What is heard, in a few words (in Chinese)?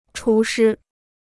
"厨师", Chinese